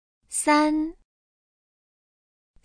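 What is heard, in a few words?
"san", Chinese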